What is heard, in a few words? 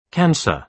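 "cancer", British English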